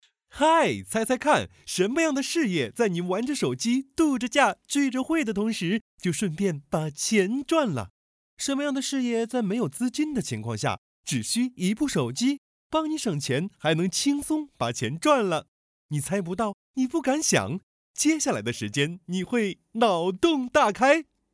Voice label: 年轻 调皮活泼

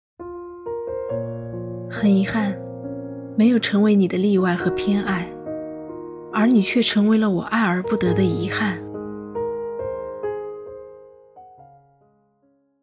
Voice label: 情感美文

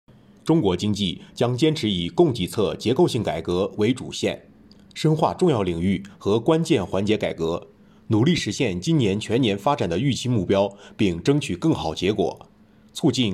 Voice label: 新闻类

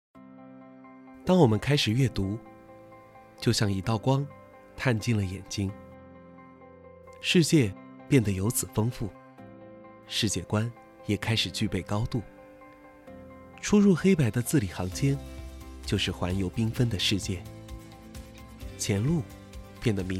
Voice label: 公益广告; 阅读

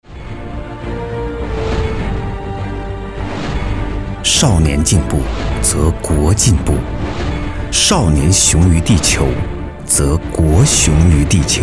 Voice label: 牛奶 广告

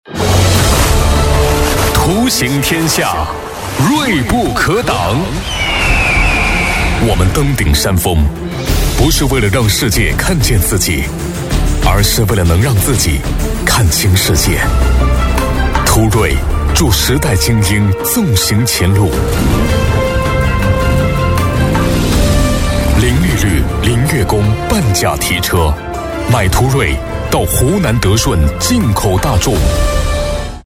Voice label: 成熟大气男声; 进口大众途锐

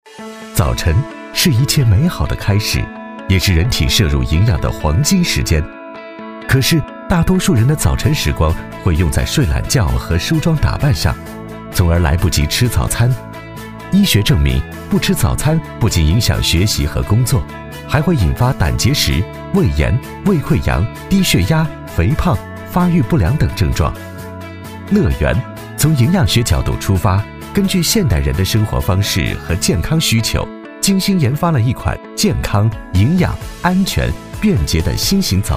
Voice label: 纪录片 阳光 风格

